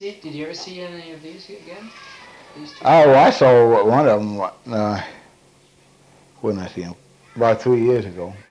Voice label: Conversation with 41 year old black male Detroit Michigan, american english dialect